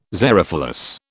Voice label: "xerophilous", British